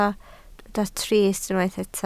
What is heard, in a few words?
"trist", Welsh